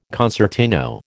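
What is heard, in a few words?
"concertino", American English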